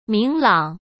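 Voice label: "明朗", Mandarin Chinese; hsk; chinese flashcards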